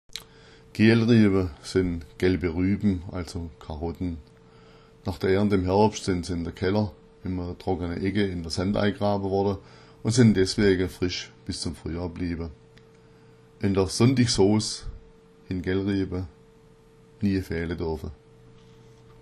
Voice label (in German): gealriaba, schwaebisch